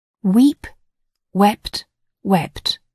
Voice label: "weep", English

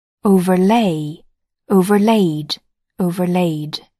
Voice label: "overlay", English

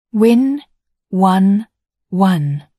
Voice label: "win", English

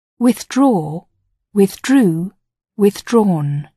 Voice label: "withdraw", English

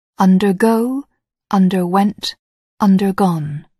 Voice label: "undergo", English

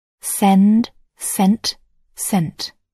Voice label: "send", English

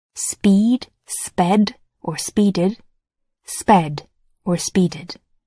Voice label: "speed", English

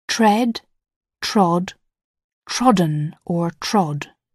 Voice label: "tread", English

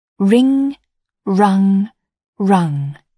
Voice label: "wring", English

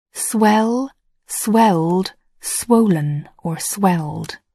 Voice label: "swell", English

